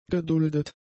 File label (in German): "geduldet", German; adjectives